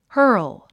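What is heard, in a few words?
"hurl", English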